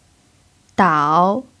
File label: "dao3", Mandarin pinyin